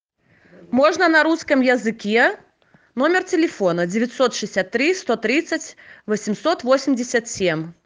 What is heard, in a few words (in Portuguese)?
Contacto russo